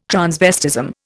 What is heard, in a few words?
"transvestism", Indian